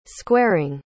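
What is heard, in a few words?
"squaring", English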